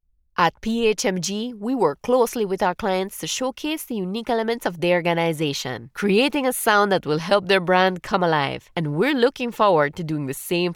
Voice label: english